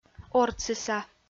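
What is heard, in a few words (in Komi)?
"ортсыса", Komi, female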